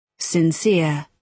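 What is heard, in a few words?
"sincere", British English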